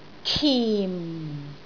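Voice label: "kim4", Cantonese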